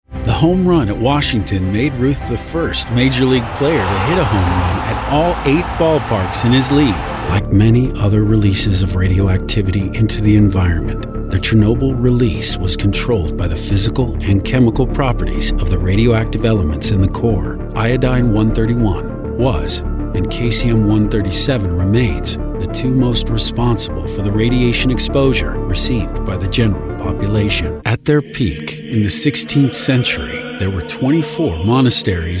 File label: Narration